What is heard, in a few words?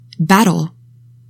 "BATTLE", English; 3000 words